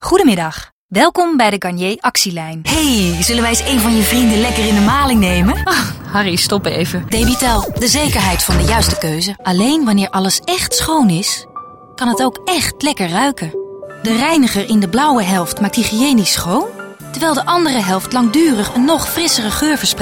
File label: Dutch Female, voiceover; Multilingual Voiceover